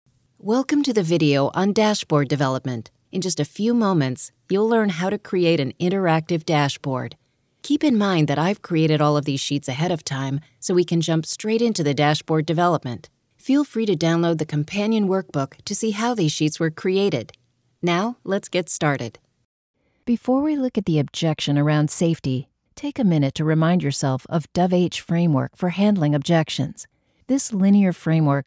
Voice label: english american e-learning